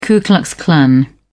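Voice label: "ku klux klan", British English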